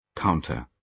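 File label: "counter", British English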